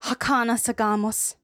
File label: Dialogue Generic; Hollow Knight; Hornet Dialogue Generic